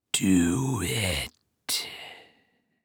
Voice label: Horror Scary Demon Speak Do It